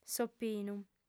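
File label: "s'opinu", Sardinian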